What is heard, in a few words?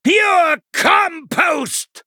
Male Night Elf Attack